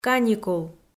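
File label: "каникул", Komi, female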